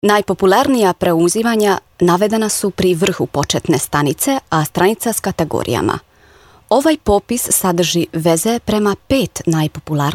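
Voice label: croatian, female voice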